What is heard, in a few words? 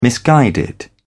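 "misguided", British English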